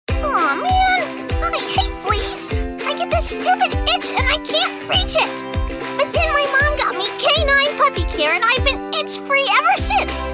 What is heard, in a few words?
english american animation; professionelle Synchronsprecherin